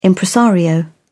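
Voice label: "impresario", British English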